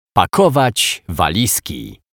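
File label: "pakowac walizki", Polish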